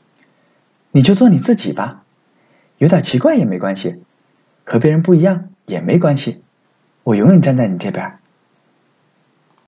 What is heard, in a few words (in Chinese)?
少年音读文